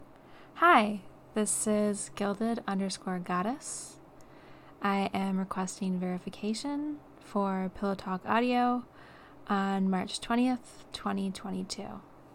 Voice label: Pillow Talk Audio Verification